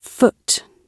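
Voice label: "foot", British English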